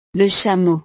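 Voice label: "le chameau", French; navidad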